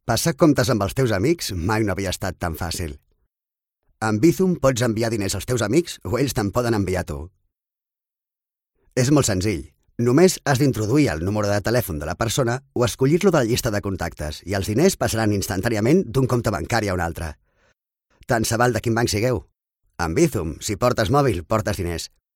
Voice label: male catalan bank comercial; ideasonora